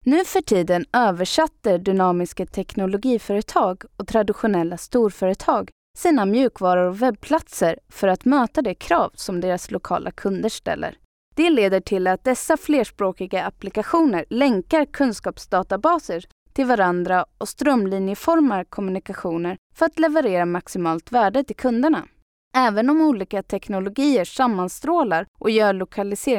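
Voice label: Swedish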